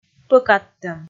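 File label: "пӧкаттӧм", Komi, female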